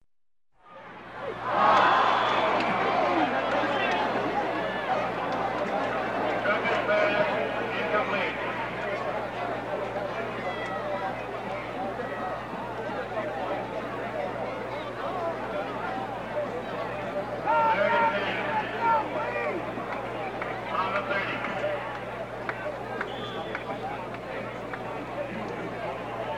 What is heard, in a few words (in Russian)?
zvuk futbolnogo matcha obyavleniya diktora angl.